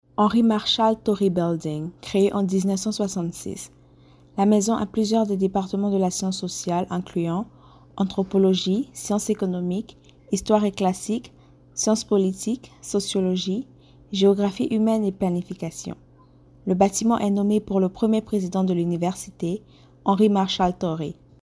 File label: Henry Marshall Tory Building - French